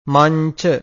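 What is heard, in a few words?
"manca", Pali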